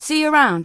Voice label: Human Female Warrior NPC Farewell